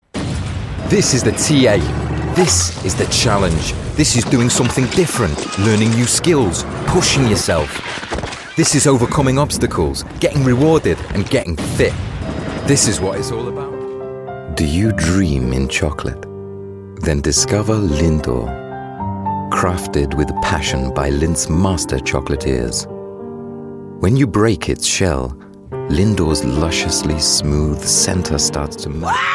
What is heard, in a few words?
adverts megamix